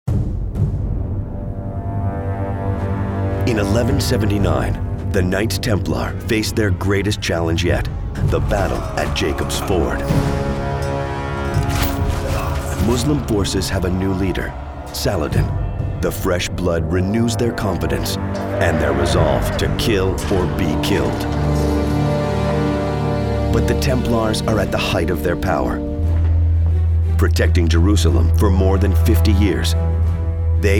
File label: NARRATION